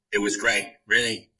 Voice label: Voice Acting, es